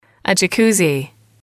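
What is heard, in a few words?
"jacuzzi", English, female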